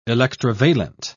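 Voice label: "electrovalent", British English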